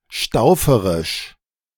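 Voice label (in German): "stauferisch", German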